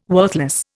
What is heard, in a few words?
"worthless", Indian